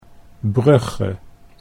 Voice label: "Brugge", Dutch